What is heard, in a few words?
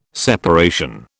"separation", British